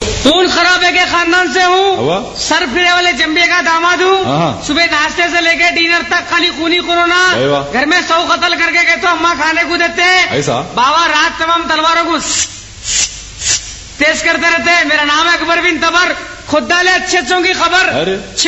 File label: Hyderabadi Nawab Hindi Funny Dailouge Ringtone; Dinge Bazi; Comedy Hindi Mp3 Ringtones